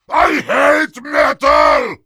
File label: Heavy rage